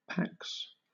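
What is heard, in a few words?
"pax", British English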